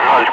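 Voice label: Russian speech, Ka-50 Wingman; Digits; DCS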